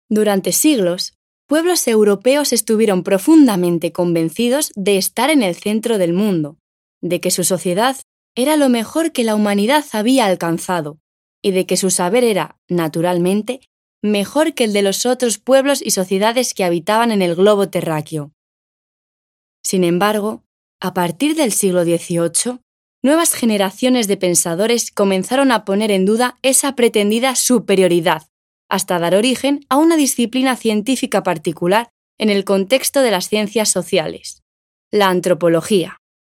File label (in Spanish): Documental